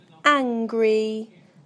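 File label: "angry", British English; PictureDictionary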